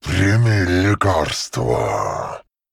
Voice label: Male Worgen Play

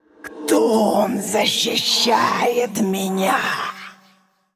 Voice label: Female Undead Play

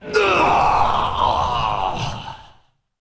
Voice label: Male Night Elf Death; Hearthstone; датамайн обновления 5.0

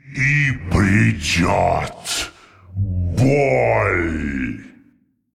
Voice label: Male Qiraji Attack; Hearthstone; датамайн обновления 5.0

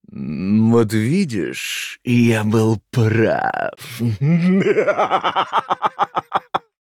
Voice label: Male Blood Elf Play; Hearthstone; датамайн обновления 5.0

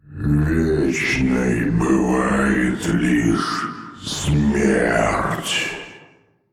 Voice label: Male Old God Attack

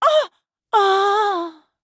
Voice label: Female Human Death

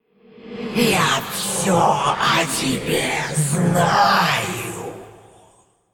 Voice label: Androgynous Faceless

